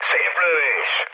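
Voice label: player killed enemy, mood low, Hungarian; speech; War Thunder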